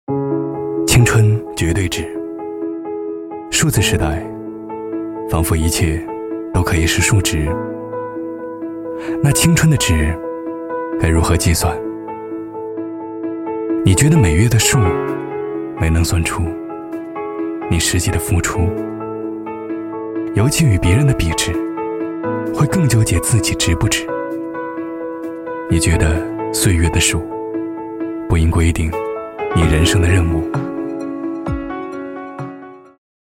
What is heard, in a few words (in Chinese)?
男 走心旁白; 青春绝对值